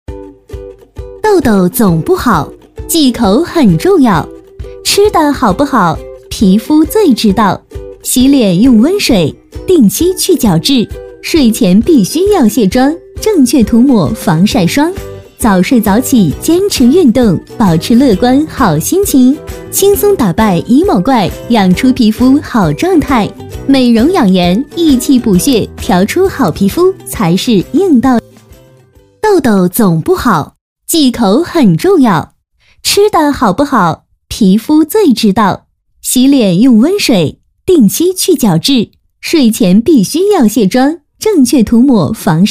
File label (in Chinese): 医美 欢快 广告